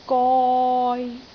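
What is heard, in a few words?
"goi3", Cantonese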